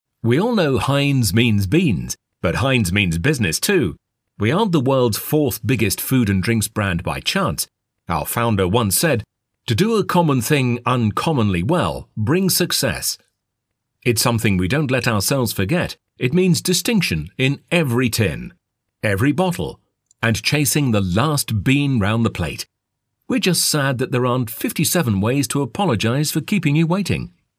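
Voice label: English British, corporate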